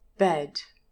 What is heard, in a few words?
"bed", English pronunciation